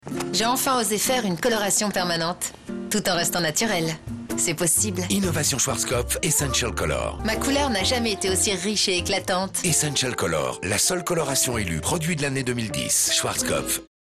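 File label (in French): droite medium, voix off féminine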